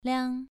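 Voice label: "liang", Mandarin Chinese syllable, second tone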